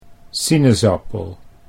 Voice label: "sinaasappel", Dutch